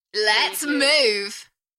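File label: British female Lets move Enthusiastic; beanstalk audio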